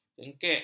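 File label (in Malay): "jengkek", Malay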